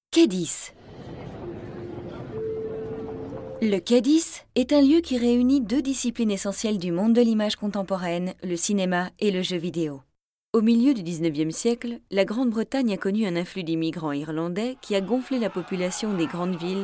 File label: french documentaries